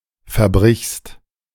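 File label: "verbrichst", German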